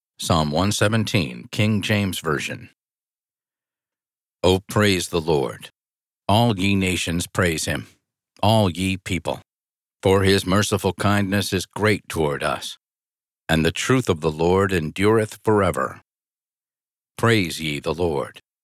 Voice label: Inspirational Psalm 117 KJV